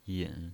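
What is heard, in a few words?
"yin" Mandarin Chinese pinyin voice, third tone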